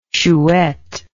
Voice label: "chouette", English